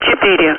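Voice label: Speech, Russian, air traffic control; Digits; DCS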